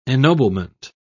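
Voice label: "ennoblement", British English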